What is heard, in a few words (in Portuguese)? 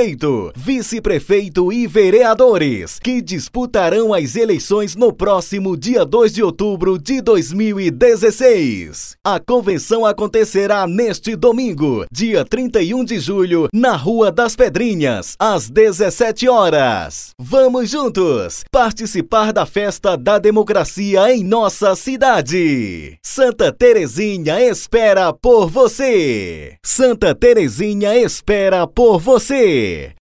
vinheta para convencao municipal